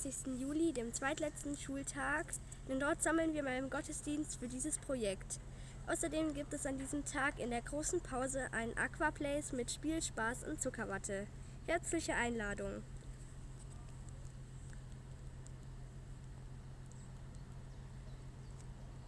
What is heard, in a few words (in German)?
Ansage Wasser wirkt; Ansage zu Projekt „Wasser“; Schubart-Gymnasium